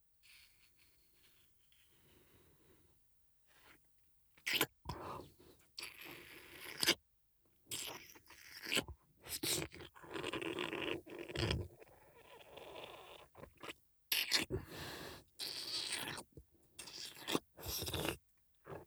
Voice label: ひたすら乳首弄りループトラック編（乳首吸い用）, ASMR; 気軽にいつでもトライ！; 超初心者のための「3ステップ」乳首開発完全ガイド!～低刺激スローチクニ―から♀イキまで～

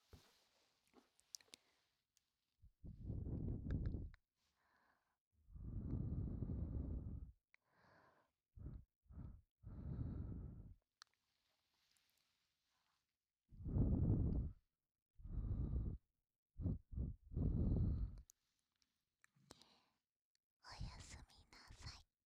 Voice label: おやすみなさい, ASMR; メイドコス; リラックスASMR

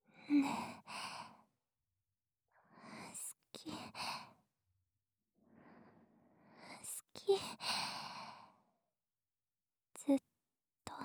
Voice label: 効果音なし, 耳元でささやかれながら

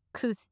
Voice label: "クズ" 近 左, ASMR

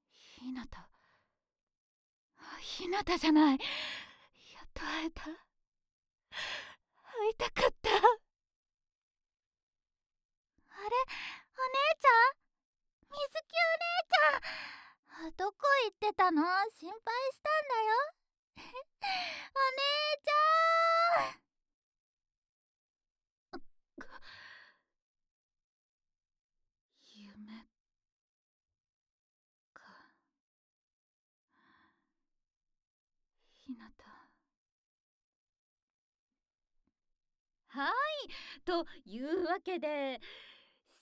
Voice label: フリートーク, ASMR

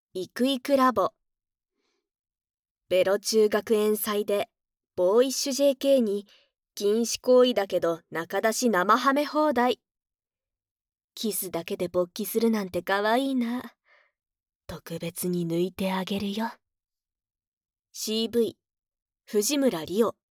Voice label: フリートーク, ASMR; ベロチュー学園祭でボーイッシュJK

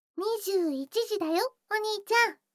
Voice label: 時報21時; 全肯定ロリママのあまあまでえっちな添い寝; いもおかASMR

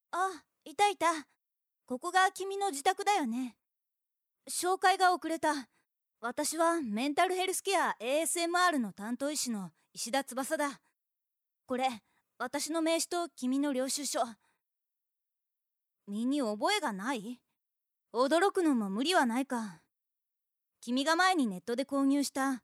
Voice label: メンタルヘルスケアASMR～頭なでなで、膝枕されながらあまあま囁き～

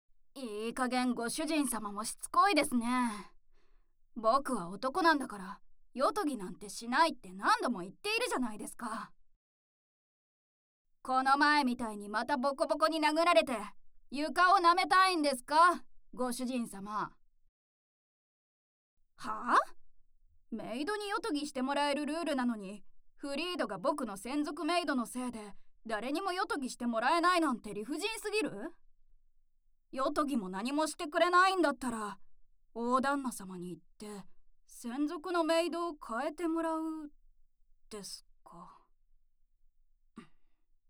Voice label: 耳舐め罵倒強制おなにー, ASMR; SEあり

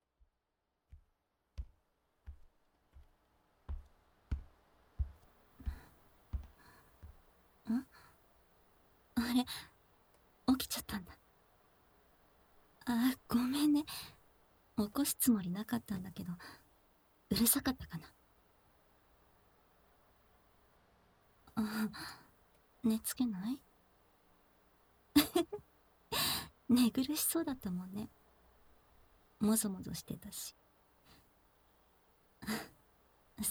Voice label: 日常ASMR・耳かき・マッサージ・添い寝ベッドイン; ふたりの暮らしは、甘い夢の入口; 夢見る射精<夢精・明晰夢>～うたた寝の中で堕ちるように～

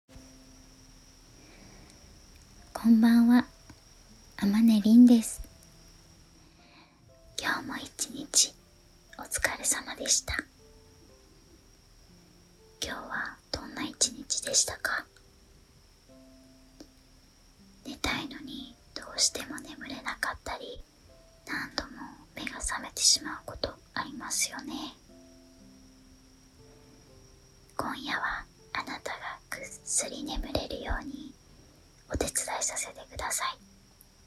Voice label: アリス式催眠誘導, ASMR

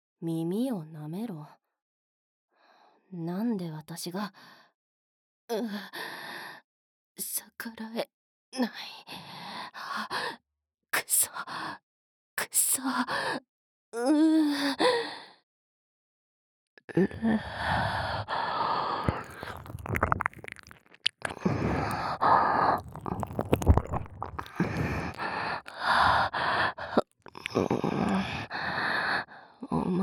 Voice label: 耳舐めボイスコレクション

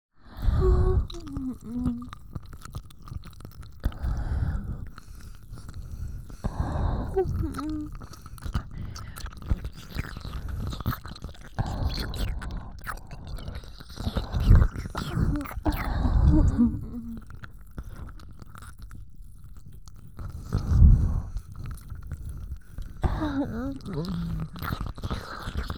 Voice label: 耳舐め 人妻×OL, ASMR 淫語; オチンポおねだり～即ヌキ特化チン媚びバイノーラル～

